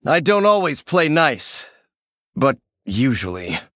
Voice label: Male Human